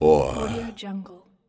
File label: male voice "oh"